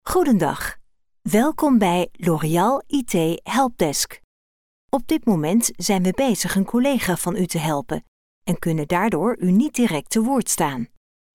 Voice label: IVR